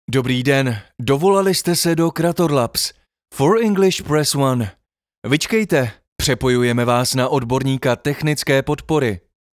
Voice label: IVR